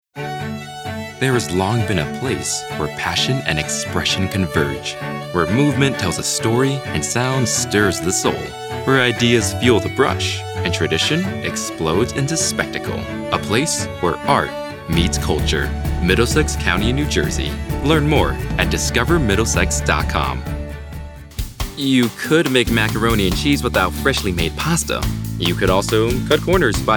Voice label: Commercial